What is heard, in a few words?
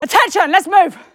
english Moving; azurlane hoi4; azurlane sr reforged